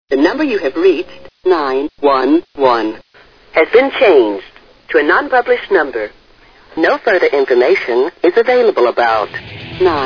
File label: Radio Commercial